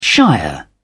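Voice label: "shire", British English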